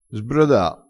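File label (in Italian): "sbroda", dialettale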